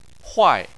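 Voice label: "huai", Chinese, fourth tone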